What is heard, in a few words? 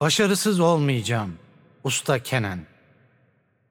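Turkish game intro; VO; bloom minigame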